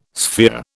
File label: "sphere", British